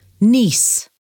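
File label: "niece", English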